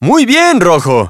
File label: Spanish dialogue; Birthday Party Bash; Wii; Game Results Winner